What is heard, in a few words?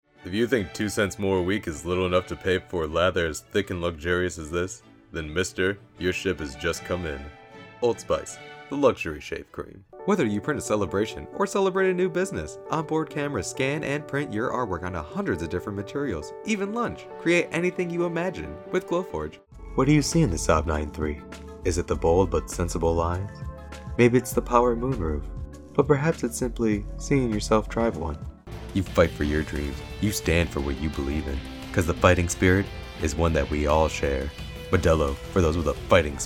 Commercial; voice-over